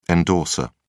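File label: "endorser", British English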